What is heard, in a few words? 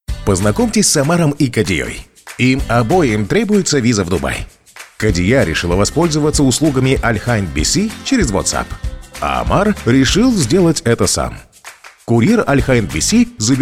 russian voice over male narration